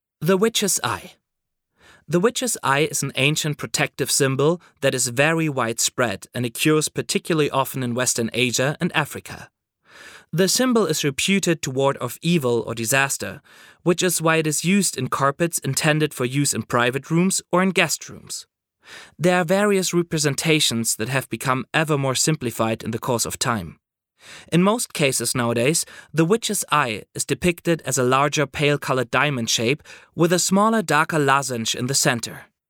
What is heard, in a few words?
male non-native english commercial; ideasonora